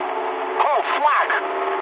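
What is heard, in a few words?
damaged anti-aircraft mood medium, speech English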